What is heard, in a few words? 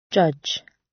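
"judge", British; Crime and punishment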